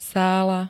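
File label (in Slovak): "sála", Slovak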